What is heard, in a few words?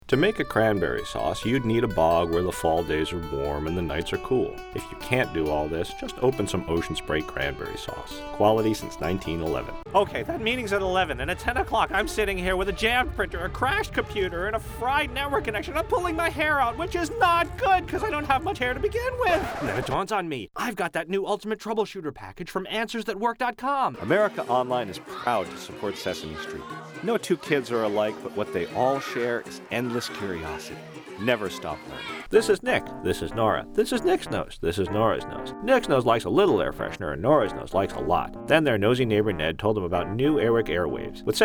commercial